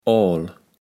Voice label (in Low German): "Oal", Platt